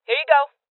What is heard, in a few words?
Voices Throw Clip Female; rebel cops 2019